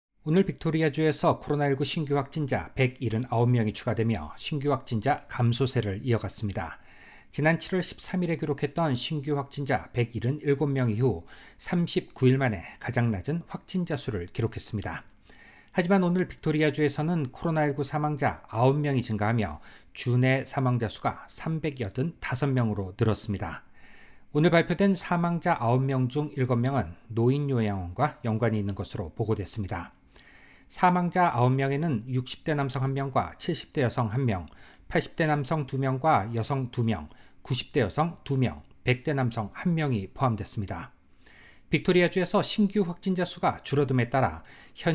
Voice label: audio news korean; SBS Korean; 650만 명 인구 VIC, ‘코로나19 검사 200만 건 넘어서’… “금요일 신규 확진자 39일 만에 최저”